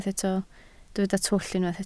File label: "twll", Welsh